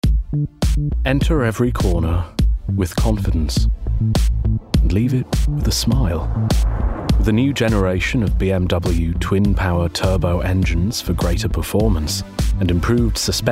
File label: COMMERCIAL; BMW